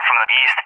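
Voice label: "from the east", English, USA; DCS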